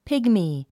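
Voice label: "pygmy", English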